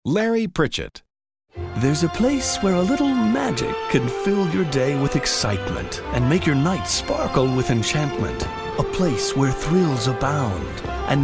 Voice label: storyteller

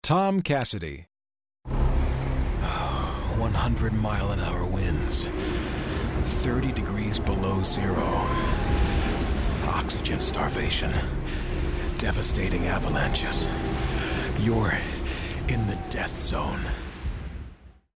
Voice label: BREATHY

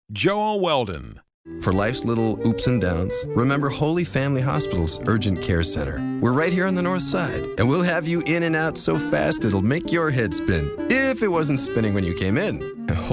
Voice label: offbeat

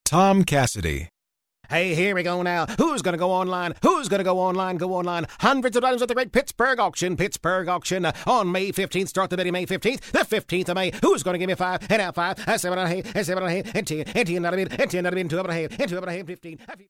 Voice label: AUCTIONEER

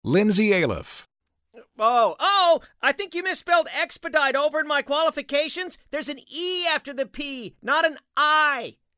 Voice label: NERD

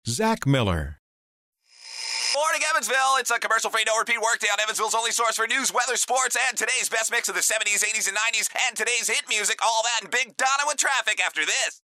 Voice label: RADIO DJ

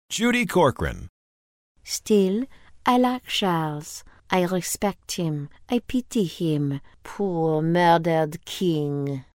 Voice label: french accent

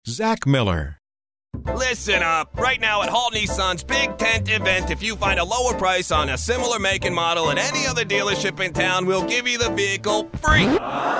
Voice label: New Yorker; NYC

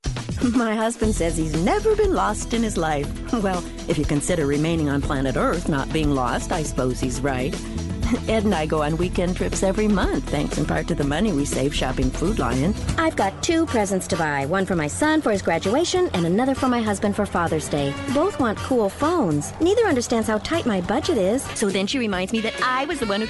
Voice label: RP

